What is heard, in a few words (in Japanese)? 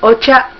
"ocha", Hiragana